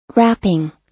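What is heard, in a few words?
"wrapping", US English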